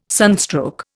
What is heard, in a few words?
"sunstroke", Indian